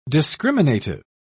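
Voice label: "discriminative", British English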